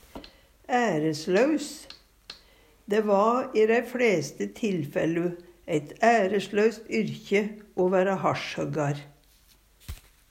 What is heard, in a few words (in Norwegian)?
"æreslaus", Numedalsmål